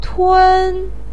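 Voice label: "tun1" Chinese syllable, pinyin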